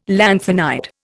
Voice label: "lanthanide", Indian